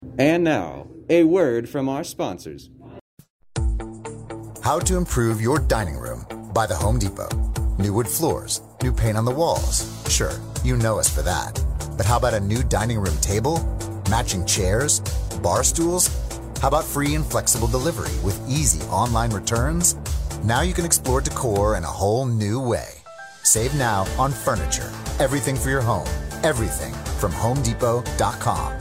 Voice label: radio ad; the north star party